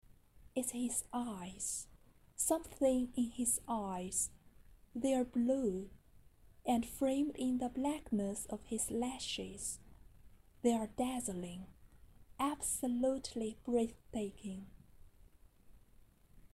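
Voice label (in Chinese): 有声书英文; 怦然心动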